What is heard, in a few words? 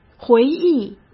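"回忆", Mandarin Chinese; chinese-flashcards; hsk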